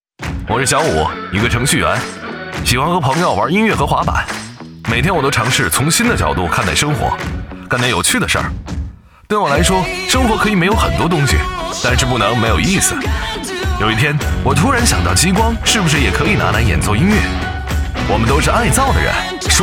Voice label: 国语男, 年轻时尚, 个人旁白

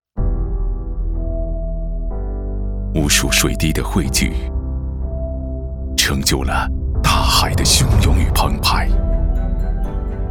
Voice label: 国语男 专题片 企业; oppo开发者大会

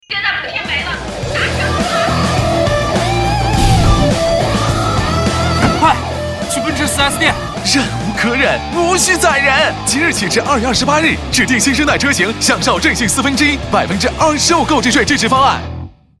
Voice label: 国语男 广告 汽车类; 奔驰“佛系”

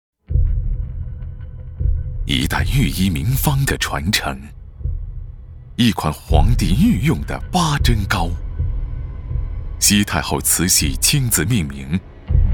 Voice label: 国语男 专题片 产品; 八珍孝亲饼

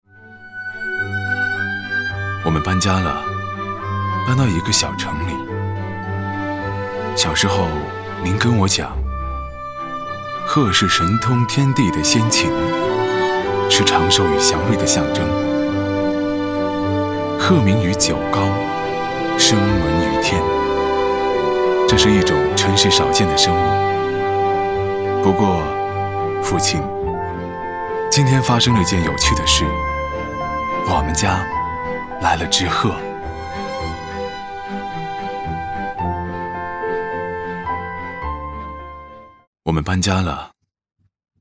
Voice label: 国语男 专题片 地产; 新加坡国际健康城

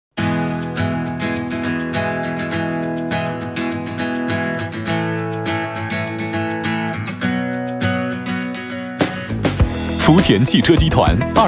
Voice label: 国语男 轻松活跃类 汽车年会; 福田汽车年会

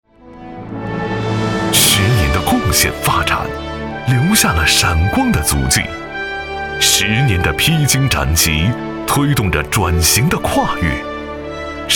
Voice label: 国语男, 企业党建; 中国移动

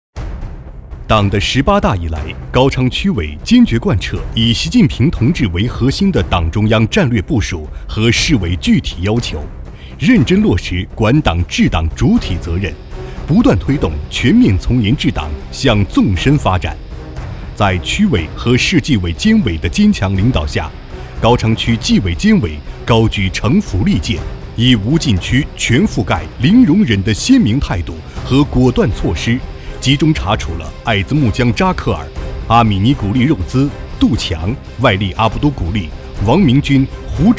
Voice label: 国语男, 记录; 镜鉴

